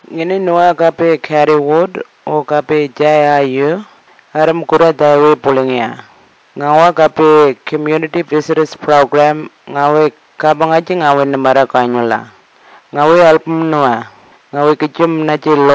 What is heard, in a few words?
Tiwi